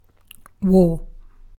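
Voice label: "war", British English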